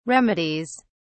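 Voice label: "remedies", British English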